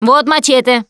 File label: melee weapons, russian, Survivor Voice Producer